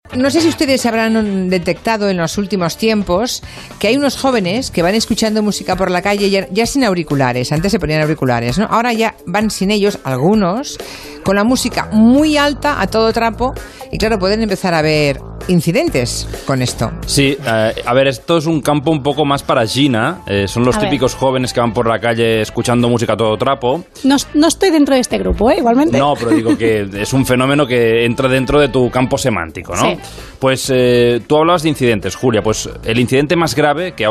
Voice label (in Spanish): musica molesta metro entrevista emitida; julia otero